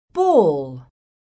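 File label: "BALL", English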